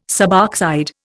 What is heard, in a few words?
"suboxide", Indian